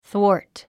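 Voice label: "thwart", English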